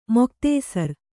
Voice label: "ಮುಕ್ತಾಸಾರ", Kannada

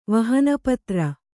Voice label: "ವಹನಪತ್ರ", Kannada

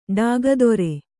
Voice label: "ಡಾಗದೊರೆ", Kannada

